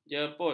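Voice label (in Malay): "jeput", Malay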